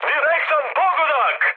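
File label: Serbian bomb success mood high, speech; War Thunder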